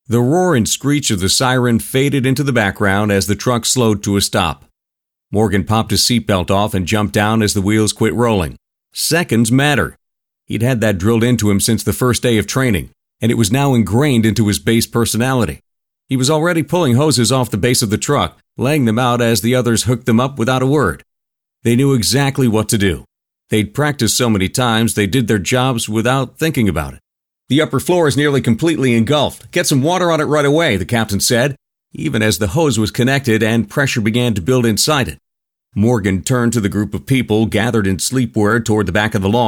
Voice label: audio books, American; Voice-over demos; USA